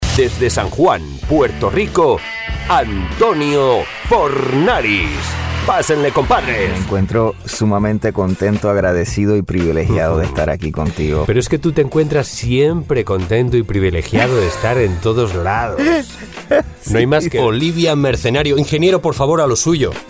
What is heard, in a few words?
spanish podcasts; professional voice actor from Spain